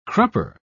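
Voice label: "crupper", British English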